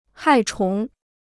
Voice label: "害虫", Chinese

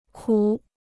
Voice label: "苦", Chinese

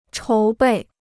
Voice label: "筹备", Chinese

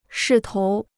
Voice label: "势头", Chinese

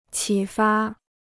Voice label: "启发", Chinese

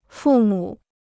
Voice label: "父母", Chinese

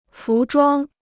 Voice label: "服装", Chinese

